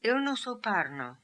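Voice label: "Ilnosoparno", pronunciations; Fourth Way; Beelzebub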